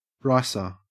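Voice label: "ricer", Australian English; pronunciations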